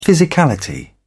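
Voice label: "physicality", British English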